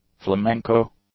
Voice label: "flamenco", English